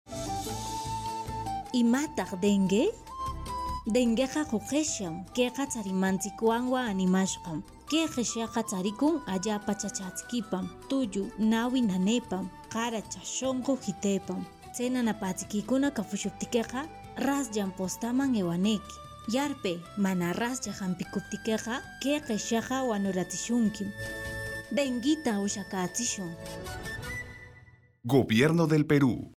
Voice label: quechua ancash, spot de radio; que es el coronavirus

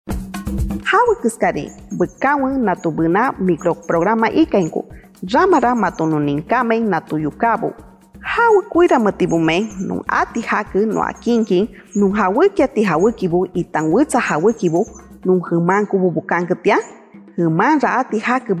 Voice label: shipibo konibo 1 voz, spot de radio; entrega de bienes y alimentos; medidas de prevencion

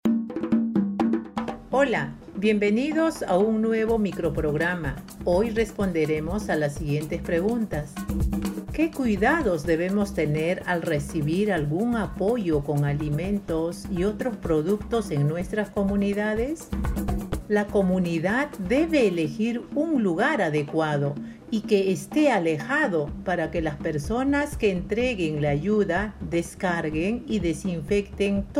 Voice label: castellano pista amazonica 1 voz, spot de radio; entrega de bienes y alimentos; medidas de prevencion

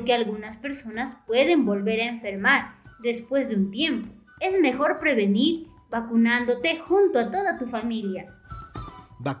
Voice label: castellano localidades andinas microprograma preguntas y respuestas vacuna coronavirus; pista andina

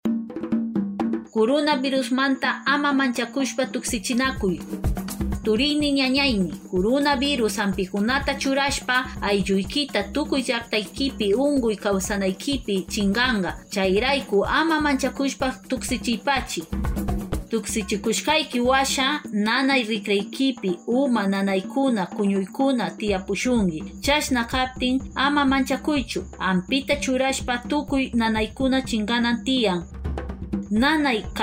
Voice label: kichwa san martin, spot de radio; vacunate sin miedo contra el coronavirus

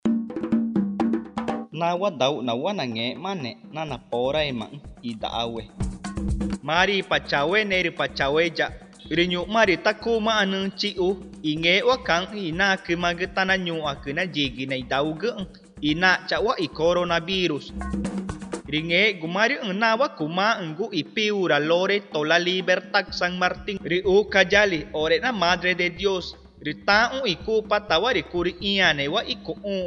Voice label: ticuna nuevas medidas, spot de radio; precauciones al salir de mi comunidad

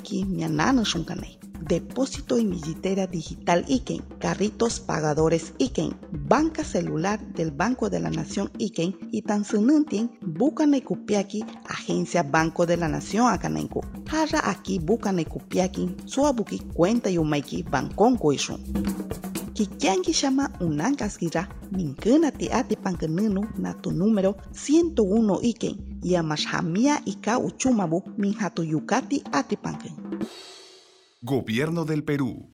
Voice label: shipibo konibo bono 600, spot de radio; precauciones al salir de mi comunidad

